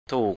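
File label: "ถูก" Thai, male; 'to be right, correct'; thù:k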